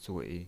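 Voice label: "zui", Mandarin Chinese, tone 3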